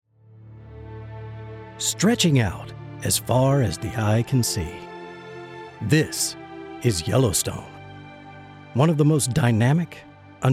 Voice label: documentary